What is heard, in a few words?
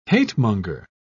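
"hatemonger", English, uk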